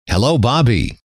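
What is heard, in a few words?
"hello", male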